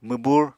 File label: "mwbur", Apatani